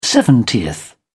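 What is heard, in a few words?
"seventieth", British English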